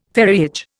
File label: "ferriage", Indian